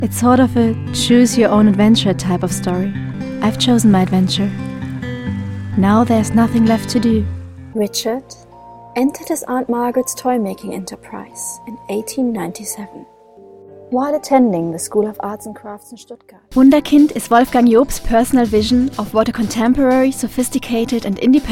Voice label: English

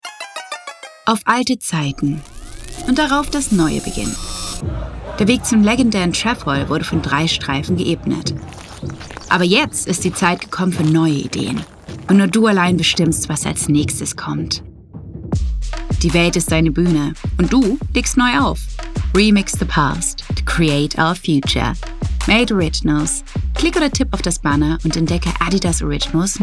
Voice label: ad

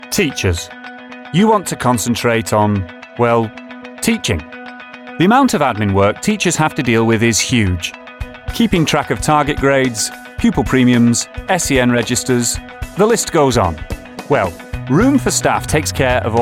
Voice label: Corporate

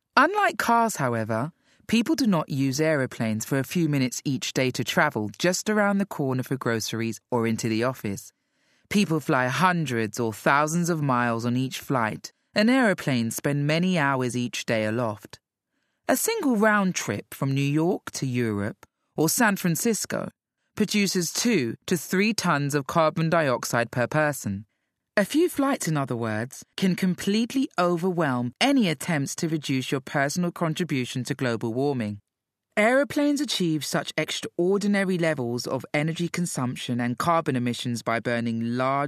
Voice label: Narration

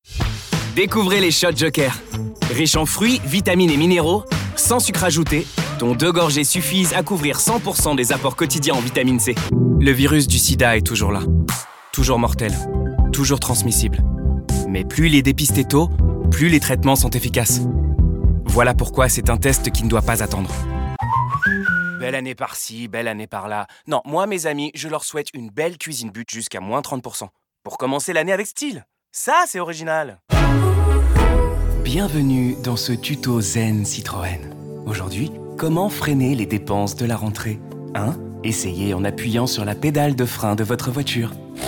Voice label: French

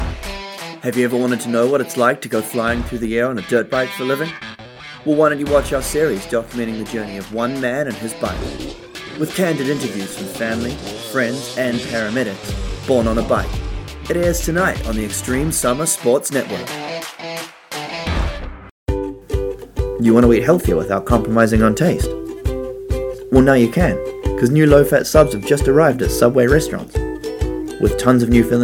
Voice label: commercial

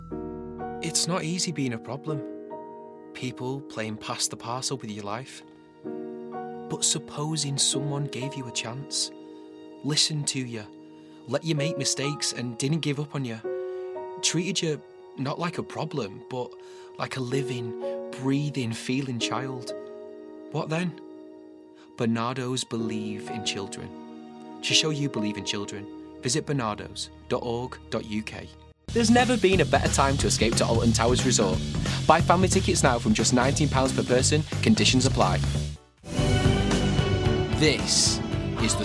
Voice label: Commercial

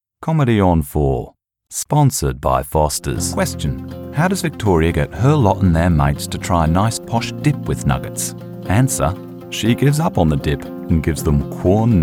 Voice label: commercial

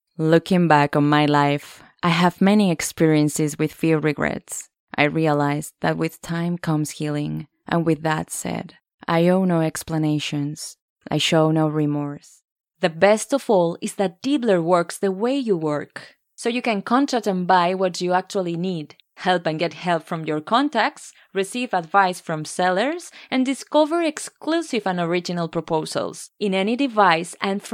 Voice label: English

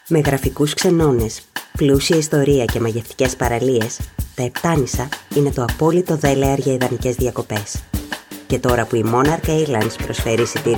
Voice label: Greek

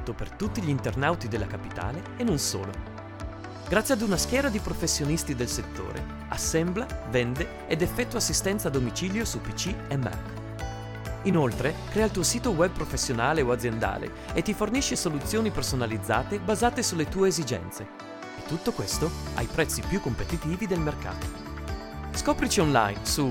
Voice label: Computer Systems Italian; Male

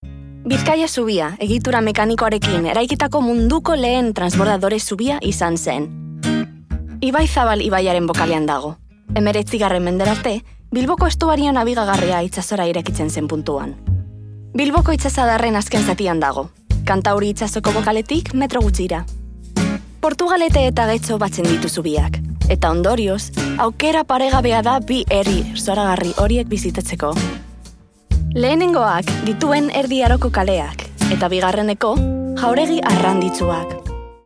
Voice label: Basque; Spanish

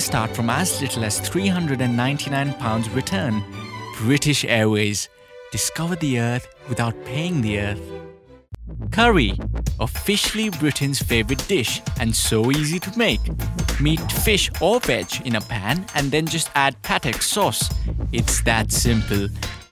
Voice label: English; Male; 30s-40s

